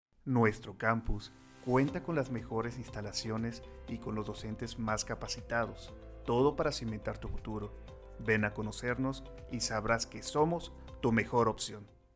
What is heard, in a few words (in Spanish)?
voz institucional